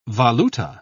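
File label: "valuta", British English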